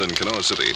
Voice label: "Kanawha" pronouncer